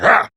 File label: Angry; Warframe; Tyl Regor Boss; Efforts